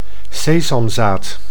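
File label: "sesamzaad", Dutch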